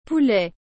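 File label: "poulet", French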